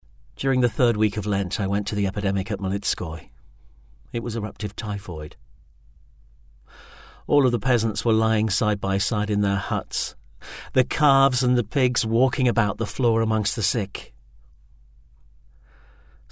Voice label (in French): Monologue de drame